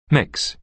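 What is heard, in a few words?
"mix", American English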